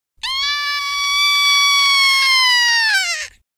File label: scared; speech